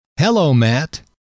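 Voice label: "hello", male; trainer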